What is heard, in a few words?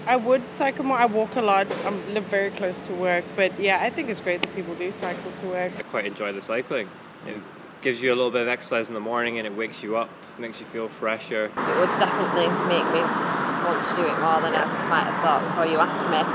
cycle vox pops